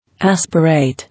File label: "Aspirate", English